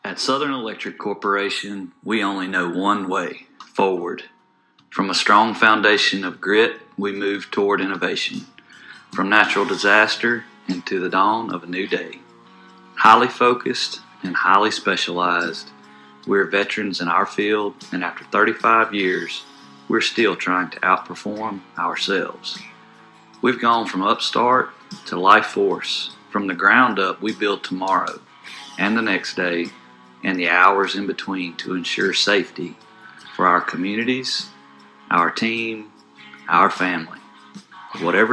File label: Mission Voiceover